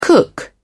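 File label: "cook", British English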